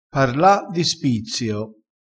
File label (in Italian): "parla di spizzio", dialettale crespinese